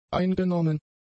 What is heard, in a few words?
"eingenommen", German; adjectives